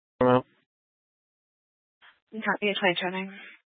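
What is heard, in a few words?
Police Dispatch, Scanner audio recording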